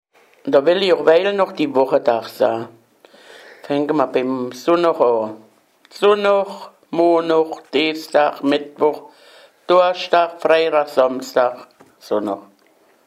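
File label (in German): wochentage, O-Ton Hunsrück; Mörsdorf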